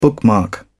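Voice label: "bookmark", British English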